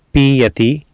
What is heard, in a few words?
"piiyati", Sanskrit